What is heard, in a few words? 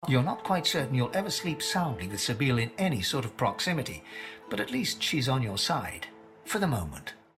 narrator voiceover; divinity original sin ii